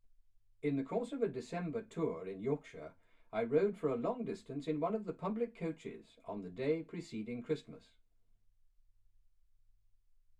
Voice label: male speech english